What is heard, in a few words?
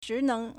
"zhi2neng2", Chinese